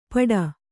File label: "ಪಡ", Kannada